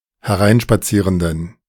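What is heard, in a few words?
"hereinspazierenden", German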